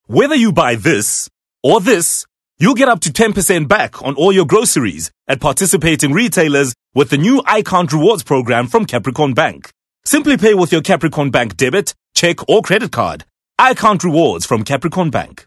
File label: English Corporate